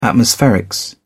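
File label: "atmospherics", English, uk British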